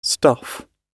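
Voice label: "stuff", British English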